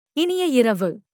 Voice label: Tamil Good night; Greetings